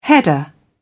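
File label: "header", British English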